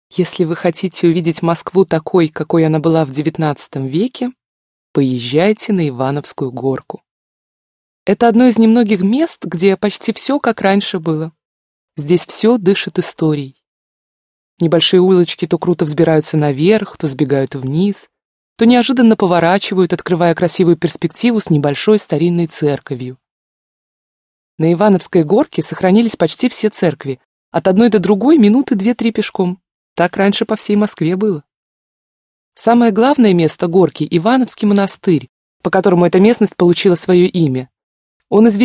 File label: russian female